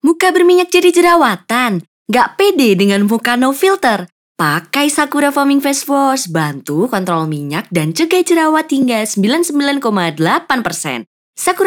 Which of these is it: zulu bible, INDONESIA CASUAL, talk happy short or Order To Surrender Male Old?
INDONESIA CASUAL